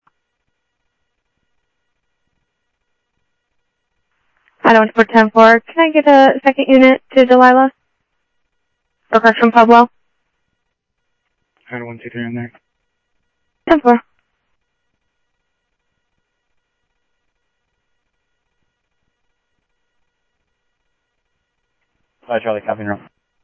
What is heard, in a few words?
South Scottsdale Sheriff, scanner audio